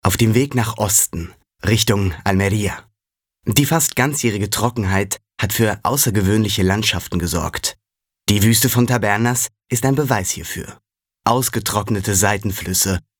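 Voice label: documentation, deutsch männer; sprecher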